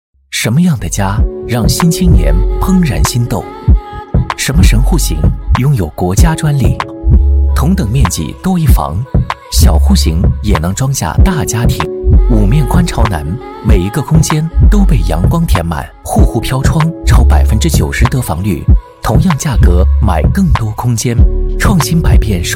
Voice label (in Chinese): 男 品质宣传; 泰丰千语庭